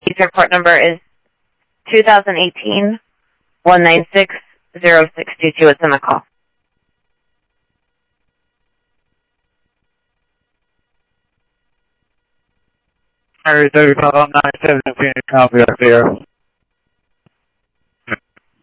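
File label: Sheriff Lake Patrol, scanner audio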